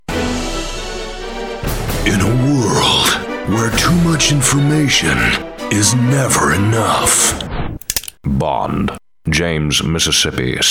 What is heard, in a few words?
Character